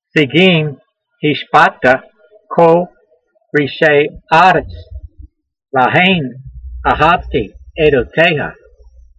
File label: voice, Psalm 119:119, hebrew language; Transliteration: seegeem heeshba'ta kol-reesh'ay-a'rets, la'hayn a'havtee aydoteyha